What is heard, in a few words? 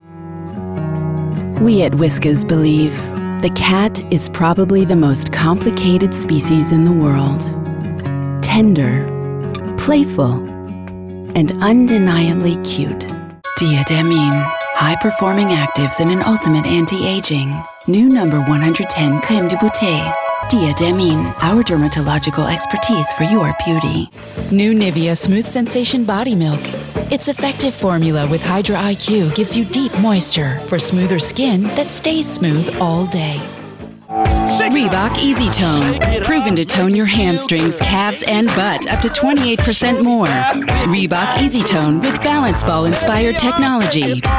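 US English, Variabel; Fremdtake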